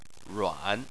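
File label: "ruan", Chinese, tone 3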